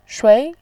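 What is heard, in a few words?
"shui", Mandarin Chinese, second tone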